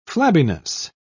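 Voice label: "flabbiness", British English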